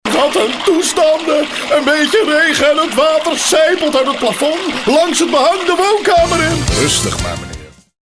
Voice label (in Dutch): wanhopig, emoties